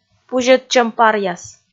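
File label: "пузьӧдчӧм паръяс", Komi, female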